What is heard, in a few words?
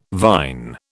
"vine", British